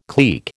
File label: "clique", American